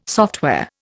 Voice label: "software", Indian